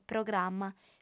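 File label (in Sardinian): "programma", female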